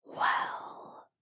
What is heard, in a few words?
asmr girl well; ringtone; asmr ringtones